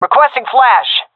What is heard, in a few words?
request flash, fem; vo; agents; Source Model Packs; Counter Strike Model Pack; CS2